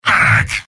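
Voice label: medic, robot; soldier; mvm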